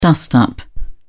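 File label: "dust up", British English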